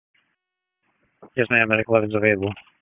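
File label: EMS DISP, radio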